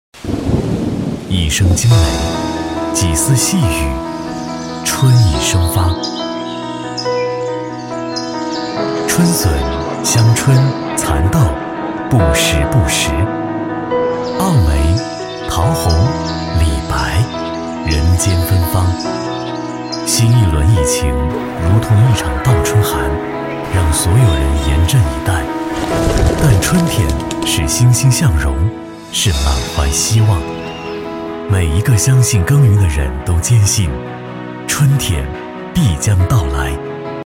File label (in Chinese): 男 宣传片; 银行宣传样音; 南京银行